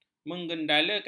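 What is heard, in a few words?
"menggendalakan", Malay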